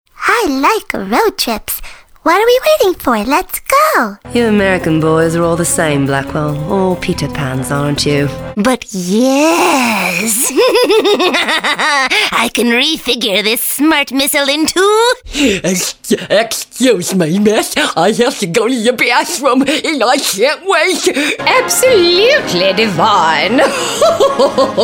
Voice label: ANIMATION